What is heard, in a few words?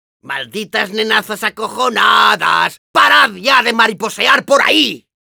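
Demoman taunts, Spanish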